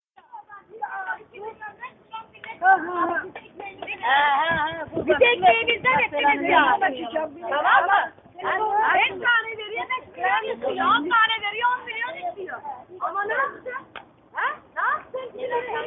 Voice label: women birdseed sellers arguing about territories istanbul